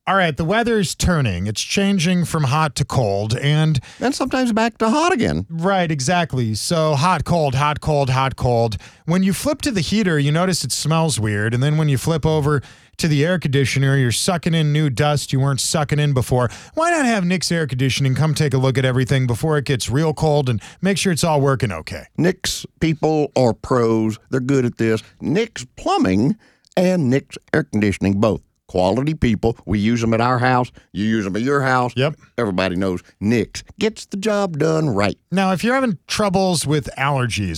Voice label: RADIO AD; nicks plumbing radio ads